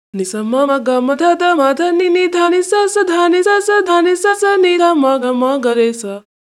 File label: voice, pitchshift